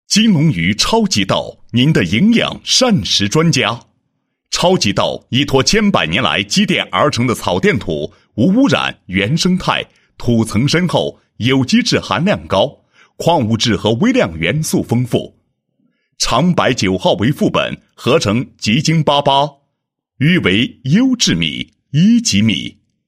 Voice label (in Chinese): 男 宣传广告 宣传; 金龙鱼超级稻